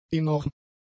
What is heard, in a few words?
"enorm", German; adjectives